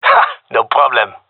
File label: round won, gendermerie male